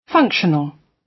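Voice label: "functional", British English; Design and innovation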